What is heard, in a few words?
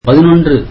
tamil eleven